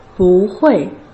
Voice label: "bu4 hui4", Putonghua